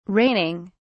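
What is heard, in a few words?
"raining", British English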